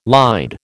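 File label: "lied", American English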